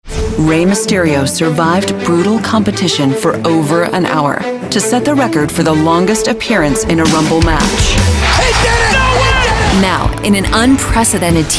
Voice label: women narration; Unique, genuine, organic and grounded. Natural air and texture, 30s-50s